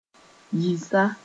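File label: "yɩsa", Mossi; now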